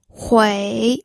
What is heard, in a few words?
"hui3", pinyin audio